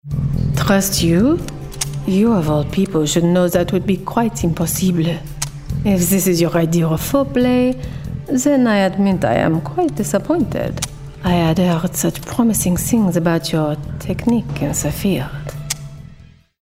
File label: Gaming Assassin French